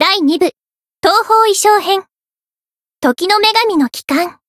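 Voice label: Voice title, Japanese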